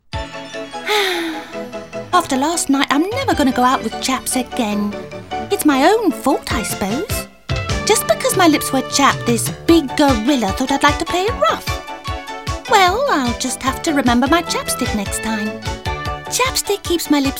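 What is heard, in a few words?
UK English, commercial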